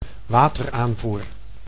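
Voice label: "wateraanvoer", Dutch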